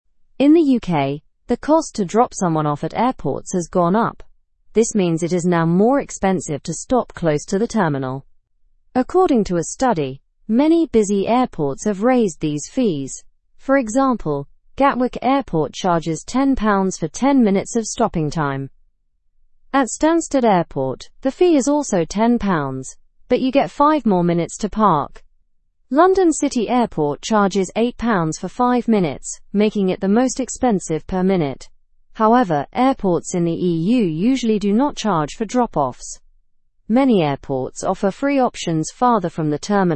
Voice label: learn english through news lower intermediate normal; uk airports raise drop off fees